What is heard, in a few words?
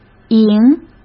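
"赢", Mandarin Chinese; hsk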